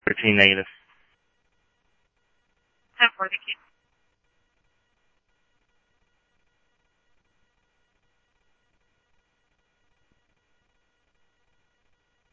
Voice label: Scottsdale PD Scottsdale Police, scanner audio